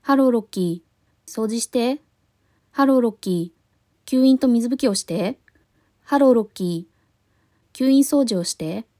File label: fast pace sample